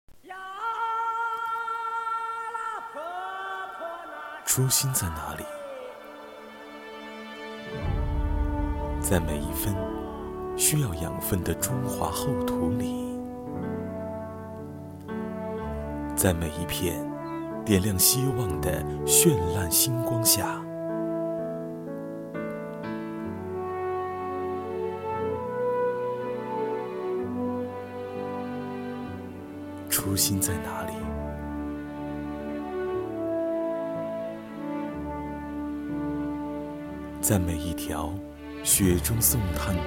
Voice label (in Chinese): 男, 公益广告